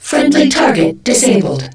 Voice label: friendly disabled; Starsiege